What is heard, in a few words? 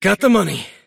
pain; davion; lasthit; dota; dragon knight